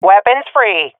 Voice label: attacking, swat fem; vo; Counter Strike Model Pack; CS:GO